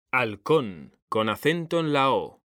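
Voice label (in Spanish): "halcón", Spanish, male; mekanta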